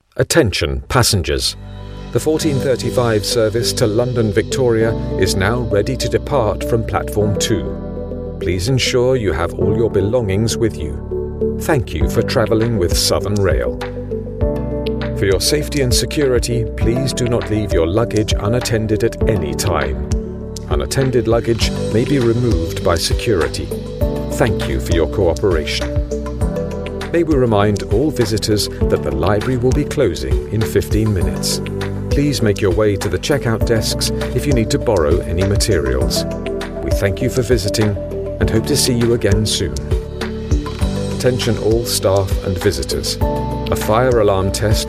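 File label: public announcements, Documentary Narrator Voice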